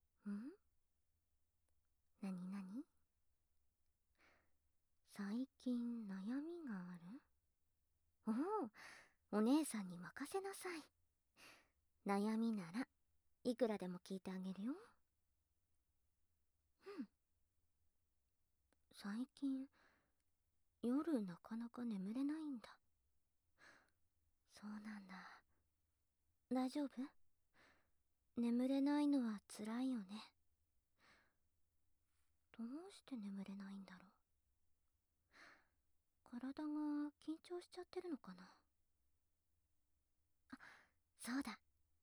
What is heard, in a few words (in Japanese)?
隣のお姉さんは褒め上手, ASMR